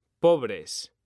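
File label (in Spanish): "POBRES", Spanish; mekanta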